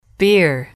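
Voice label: "beer", English, female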